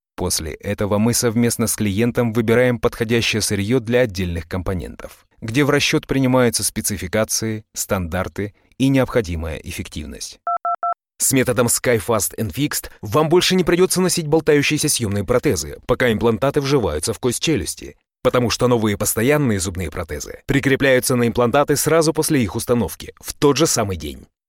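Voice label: male, corporate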